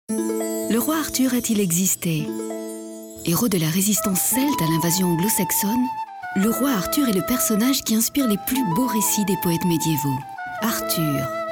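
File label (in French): French, female, commercial; voice demos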